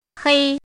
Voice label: "hei1", pinyin

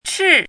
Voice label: "chi4", pinyin

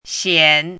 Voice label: "xian3", pinyin

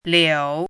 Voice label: "liu3", pinyin

